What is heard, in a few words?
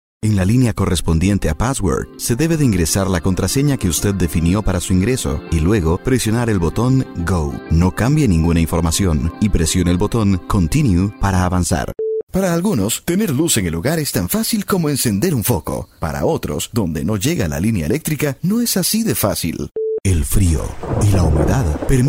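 Latin American Spanish, male, various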